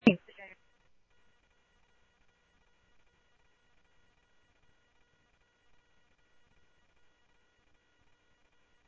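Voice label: Lakes Sheriff Lake Patrol, scanner audio trunk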